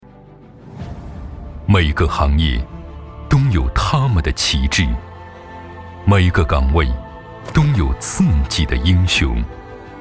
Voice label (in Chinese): 广告航空机务